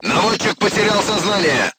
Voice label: voice message gunlayer contused, crew tanks russian